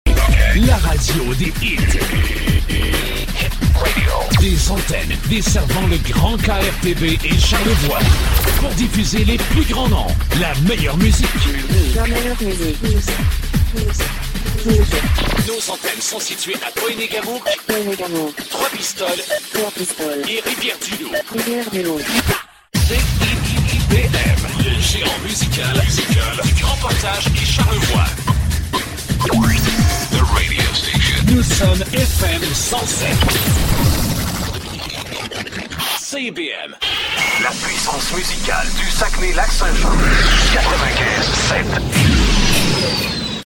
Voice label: french canadian promos